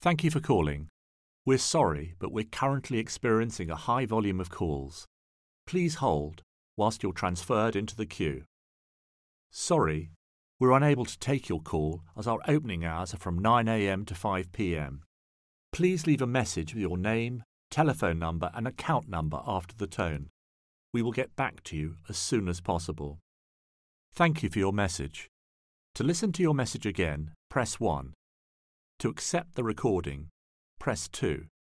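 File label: British English, IVR; GoLocalise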